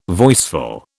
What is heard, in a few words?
"voiceful", British